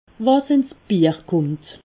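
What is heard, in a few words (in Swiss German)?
"bier", Haut Rhin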